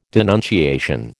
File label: "denunciation", American